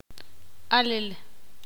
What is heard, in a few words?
ixil; decir